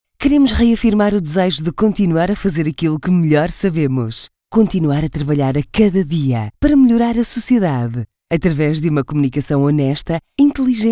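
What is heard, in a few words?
Portuguese, female, corporate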